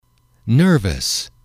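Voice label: "nervous", English, male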